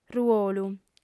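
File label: "ruolu", Sardinian female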